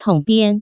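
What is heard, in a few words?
"tongbian", Taiwan Mandarin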